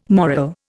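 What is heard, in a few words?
"moral", Indian English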